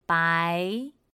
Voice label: "bái", Chino

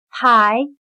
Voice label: "排", Chino

